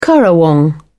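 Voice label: "currawong", British English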